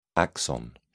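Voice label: "axon", British English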